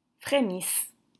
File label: "Frémissent", French